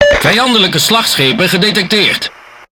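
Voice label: Speech Detection Enemy Battleship, Dutch; World Of Warships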